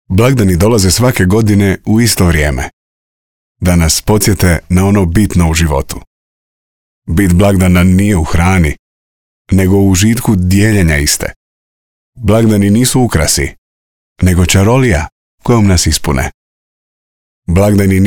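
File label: croatian male narration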